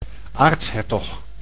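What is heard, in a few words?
"aartshertog", Dutch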